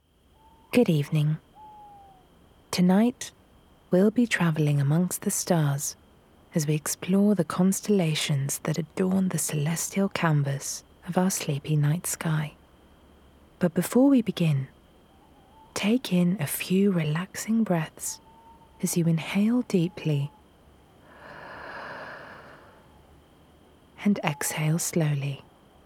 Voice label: Narrative

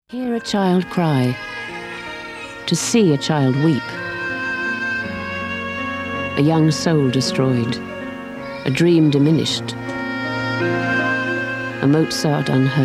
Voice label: Commercials

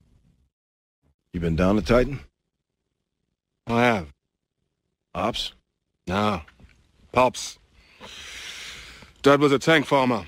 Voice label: Character

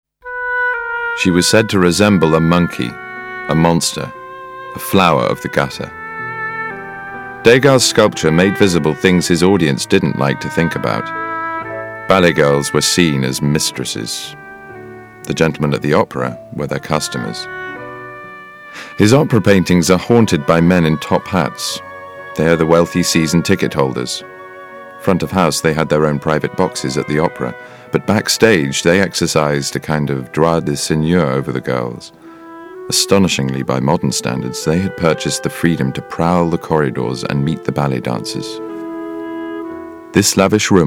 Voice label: Documentary; Natural Accent: RP

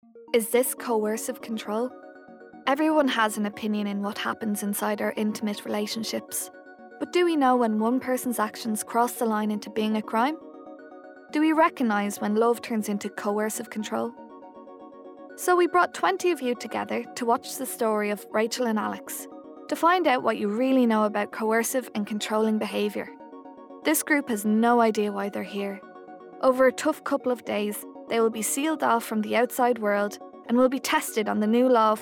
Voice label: Documentary

